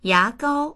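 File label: "ya2gao1", Mandarin Chinese; 牙膏; yágāo; 歯みがき粉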